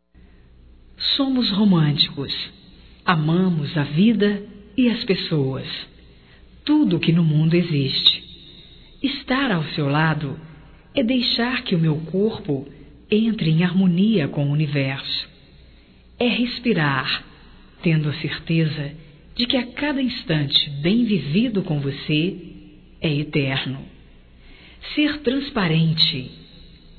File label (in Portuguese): ROMANTICA GERAL; Românticas